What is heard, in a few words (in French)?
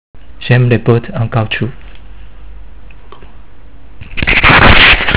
j'aime bottes c fr accent chinois; BOTTES CAOUTCHOUC RUBBER BOOTS